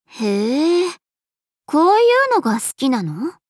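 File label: Opening Treasure Chest, Japanese; Chiori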